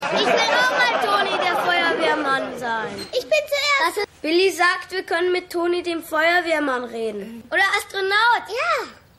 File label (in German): Junge